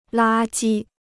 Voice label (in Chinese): "垃圾", Chinese